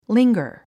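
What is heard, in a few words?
"linger", English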